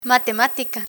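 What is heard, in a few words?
"matematica", Spanish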